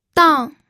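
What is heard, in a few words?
"dang", Mandarin Chinese, fourth tone; pinyin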